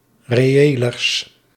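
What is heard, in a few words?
"reëlers", Dutch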